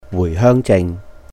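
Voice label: "Wùih Hèung Jing", Cantonese; Travel Permit Card; 回鄉証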